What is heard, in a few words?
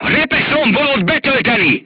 voice message load Frag, crew tanks hungarian